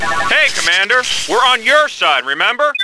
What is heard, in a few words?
Outpost, Computer Voice; X-COM